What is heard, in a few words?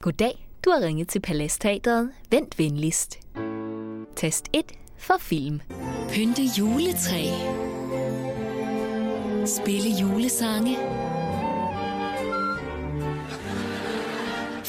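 Danish General; GoLocalise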